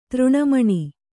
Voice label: "ತೃಣಮಣಿ", Kannada